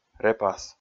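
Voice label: "репас", Komi, male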